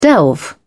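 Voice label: "delve", British English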